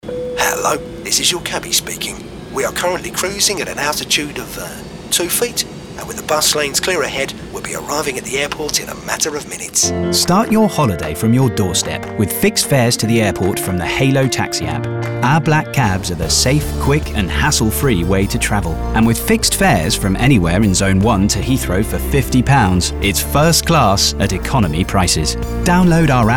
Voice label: Radio; Hailo